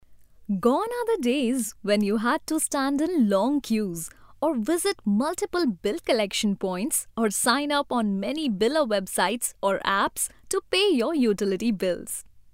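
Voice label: English, female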